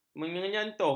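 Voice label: "menyentuh", Malay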